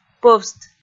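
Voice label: "пӧвст", Komi, female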